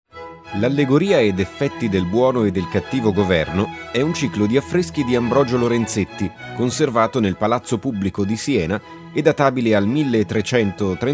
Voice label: italian documentaries